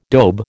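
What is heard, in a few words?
"daube", American English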